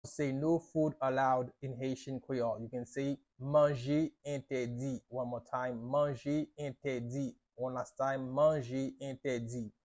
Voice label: Manje entedi, Haitian Creole pronunciation by a native Haitian; No food allowed in Haitian Creole